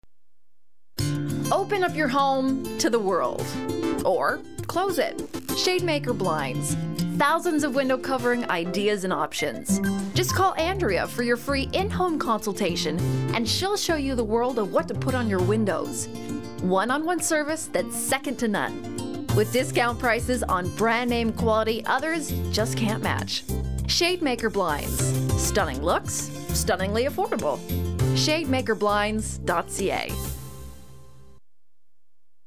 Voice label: radio comercial; z99